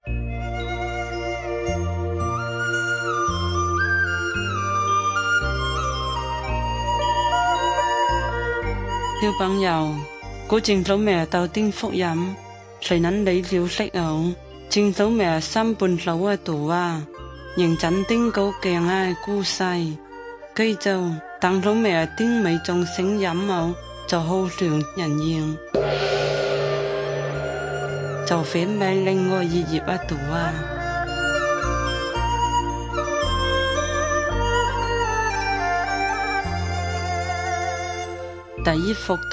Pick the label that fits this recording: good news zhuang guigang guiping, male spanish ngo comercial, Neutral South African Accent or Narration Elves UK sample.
good news zhuang guigang guiping